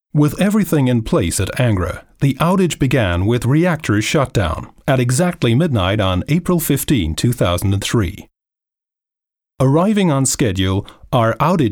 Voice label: Englisch neutral; Angra